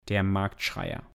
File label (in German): "der Marktschreier", German